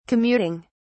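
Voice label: "commuting", British English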